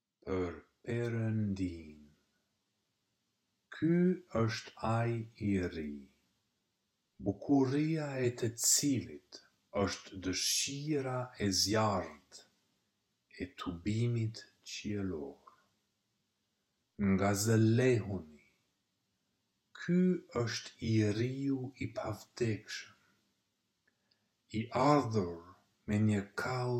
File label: pronunciation slow